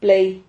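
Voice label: "plei", Spanish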